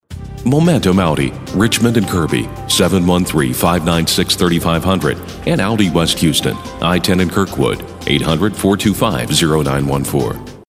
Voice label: classy sophisticated

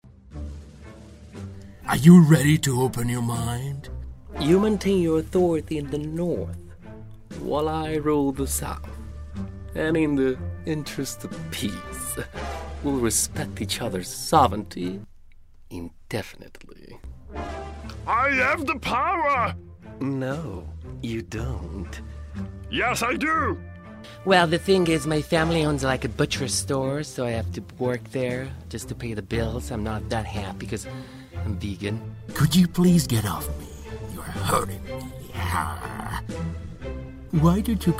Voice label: Animation

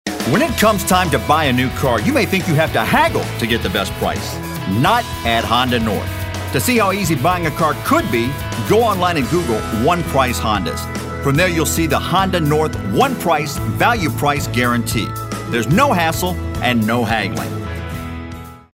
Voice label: Hardsell

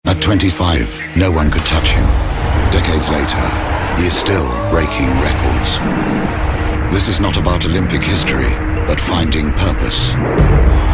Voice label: storytellers narrative

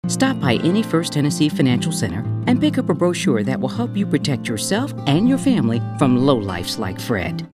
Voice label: friendly

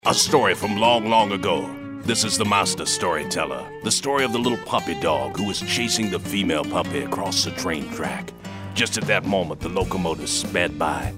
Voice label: tough gritty

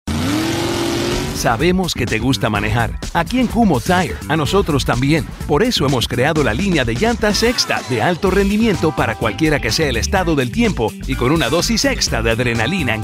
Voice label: retail cool

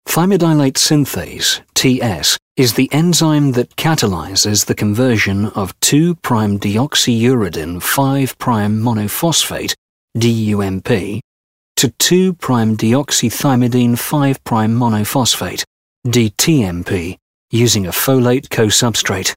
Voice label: Medical Narration

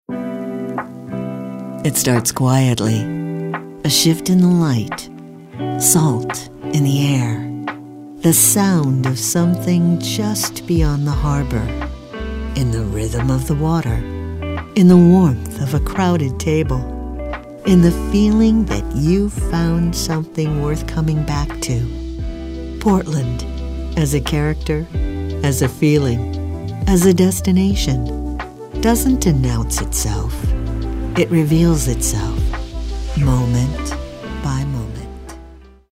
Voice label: friendly; Portland

